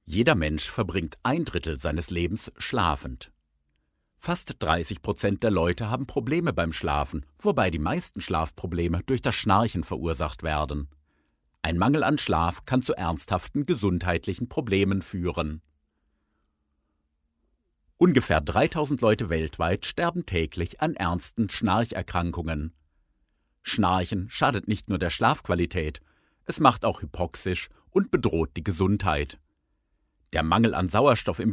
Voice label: German male